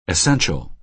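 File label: "essential", American English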